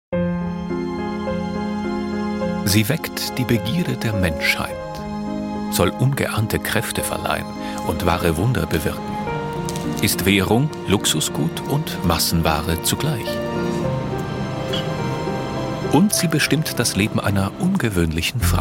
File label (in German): Schoko Doku kurz